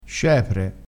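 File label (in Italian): "scepre", dialettale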